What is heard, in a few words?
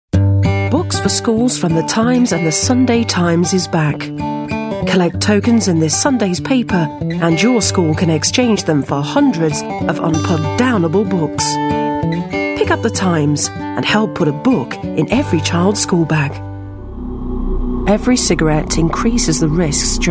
English F Commercial Reel; advertising voice over